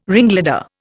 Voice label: "ringleader", Indian

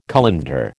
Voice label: "colander", American

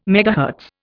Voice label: "megahertz", Indian

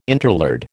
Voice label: "interlard", American

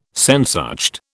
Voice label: "Sehnsucht", British

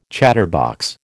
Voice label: "chatterbox", American

